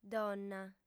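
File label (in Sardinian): "donna", female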